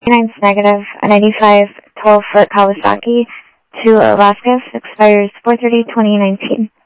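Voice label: Sheriff Lake Patrol, scanner audio trunk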